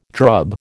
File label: "drub", American